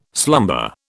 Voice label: "slumber", British